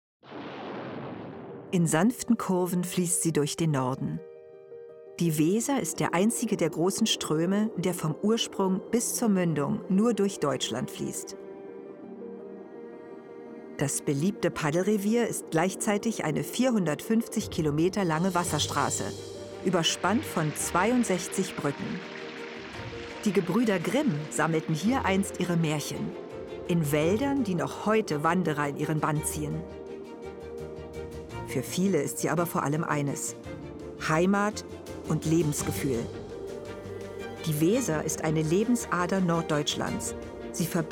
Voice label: Doku

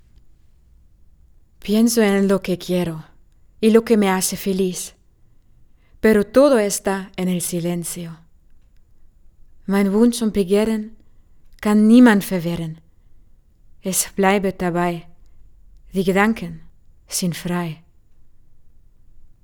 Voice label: Spanisch Akzent

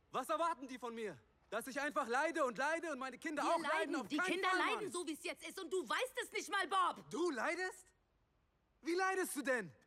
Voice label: dialog beziehungsstreit; Synchron; Regie: Marius Clarén

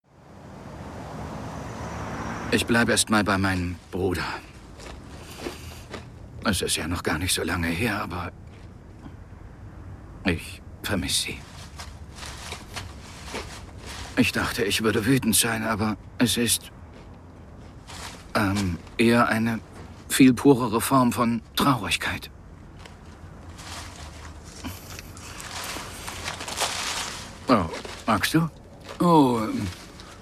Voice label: Rolle Komoedie; Rolle, Komödie

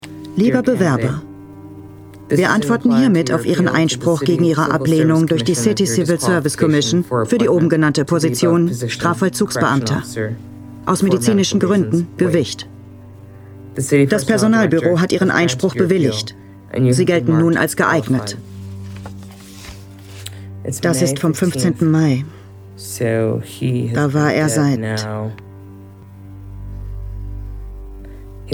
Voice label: Voiceover Mix; StrongIsland; Krebs-EineBiografie; Ebola

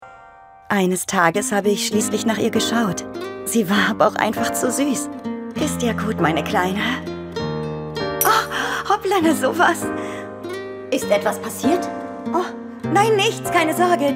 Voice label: Animation Mutter; YosugaNoSora; KazuhasMutter

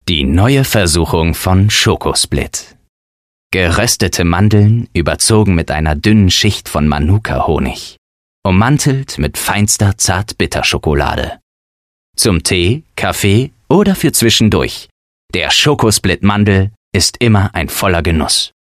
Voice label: Werbung

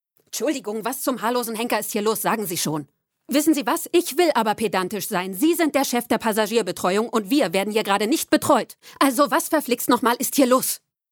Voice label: Synchron

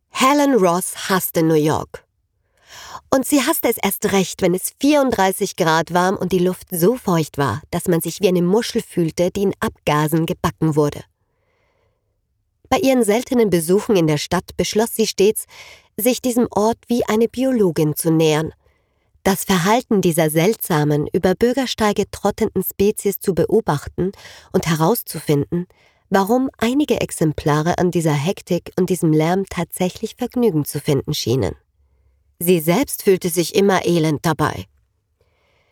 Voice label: Hoerbuch

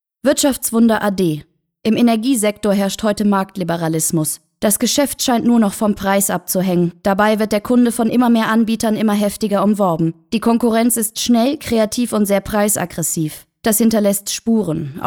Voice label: Kommentar; Loftstudios; Wirtschaftswunder

